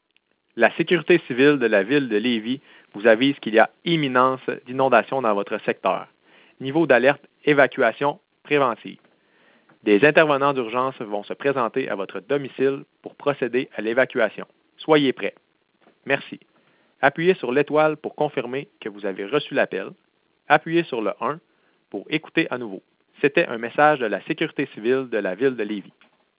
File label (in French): telephone evacuation preventive francais; riviere beaurivage